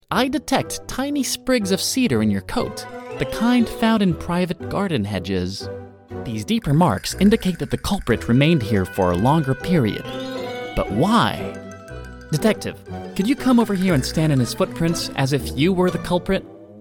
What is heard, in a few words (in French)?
Animation Jeune Detective US; voix-off